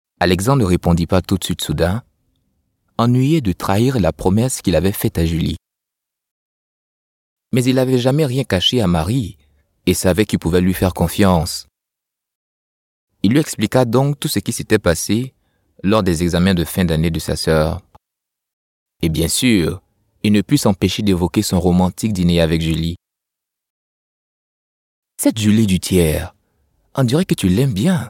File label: african french voice, audiobook